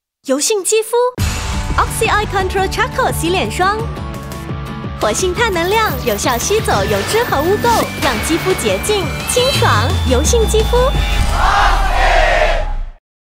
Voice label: Mandarin Announcer, Style: Confident & Engaging Adult; Vaseline